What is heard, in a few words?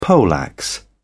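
"poleaxe", British English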